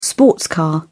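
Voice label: "sports car", British English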